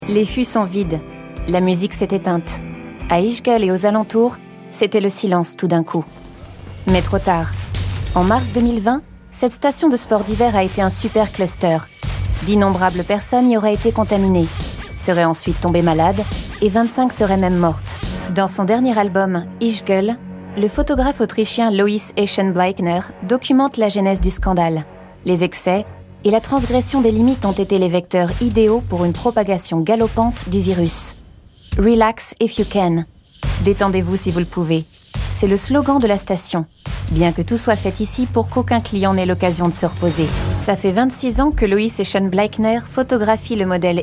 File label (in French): NARRATION VF; ARTE; magculturel; supercluster